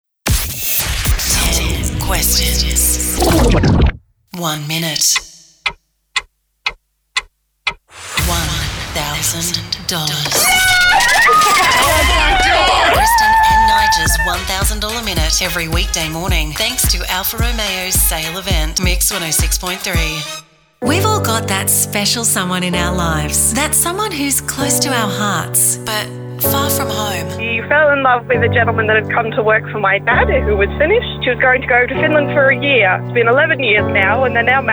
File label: radio imaging